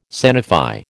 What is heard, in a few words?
"sanify", American